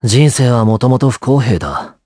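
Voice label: voice, Japanese; Esker; kingsraid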